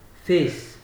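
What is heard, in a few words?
"face", Nigerian Pidgin, male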